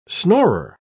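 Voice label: "snorer", British English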